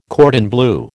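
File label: "cordon bleu", American English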